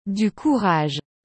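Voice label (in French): "Du courage", French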